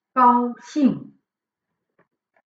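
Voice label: "gao xing", Chinese; Chinees leren met Pinyin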